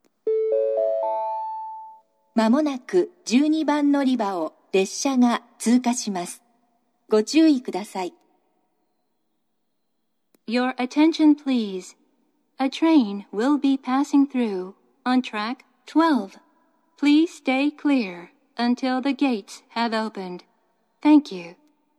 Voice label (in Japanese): 12番のりば通過放送（西九州新幹線）; 通過列車が接近する場合に流れます。; 英語放送